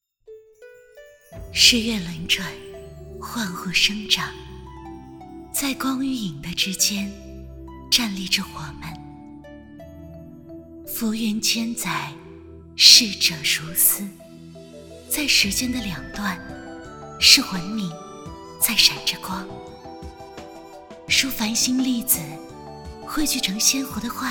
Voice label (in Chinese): 人物配音 神话 仙女